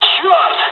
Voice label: dialog, dialogs russian; War Thunder